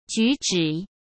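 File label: "举止", Mandarin Chinese; hsk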